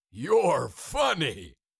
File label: Cartoon lion voice, "you are funny"